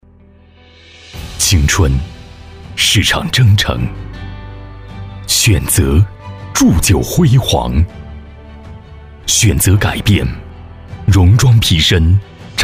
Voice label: 专题 政府 征兵试音 讲述, 男

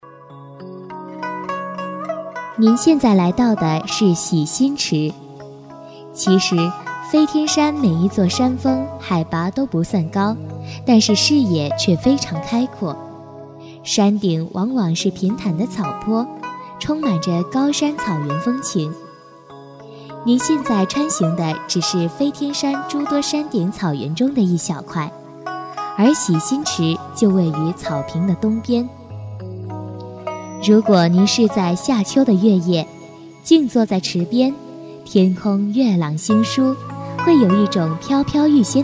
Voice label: 语音导游 中文导游词

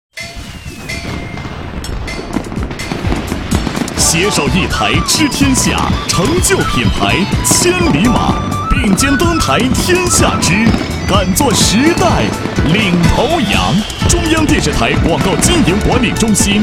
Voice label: 亲切; 广告 节日; 央视广告中心贺岁

